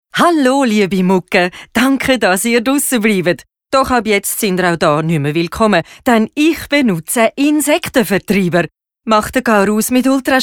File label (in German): Werbung frisch